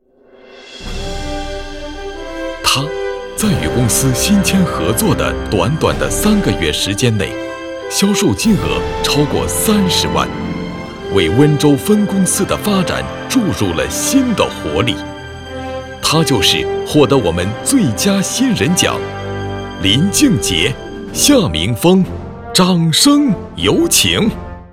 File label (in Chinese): 男 员工颁奖 力度 大气 豪迈